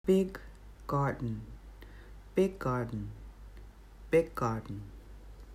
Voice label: "big garden", English